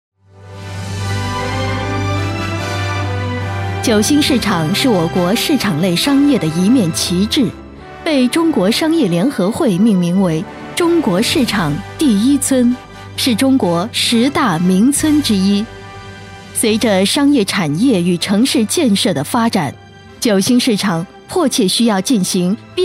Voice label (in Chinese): 女 专题 企业招商; 国语女声配音